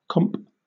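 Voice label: "comp", British English; pronunciations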